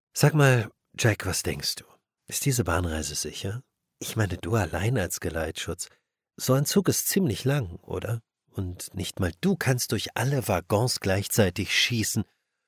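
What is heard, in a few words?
German, male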